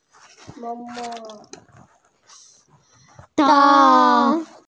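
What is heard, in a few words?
"dou1", Mandarin Chinese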